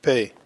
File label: "peh", hebrew